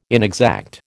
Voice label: "inexact", American

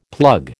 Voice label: "plug", American

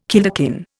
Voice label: "kilderkin", Indian

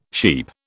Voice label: "sheep", British

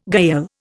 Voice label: "gayal", Indian

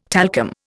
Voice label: "talcum", Indian

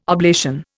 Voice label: "oblation", Indian

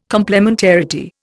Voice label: "complementarity", Indian

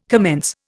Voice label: "commence", Indian

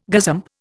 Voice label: "gazump", Indian

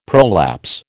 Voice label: "prolapse", American

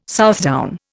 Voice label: "Southdown", Indian

